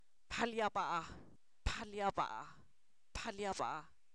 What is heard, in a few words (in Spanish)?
estrella, Zapoteco, san miguel albarradas; fenomenos naturales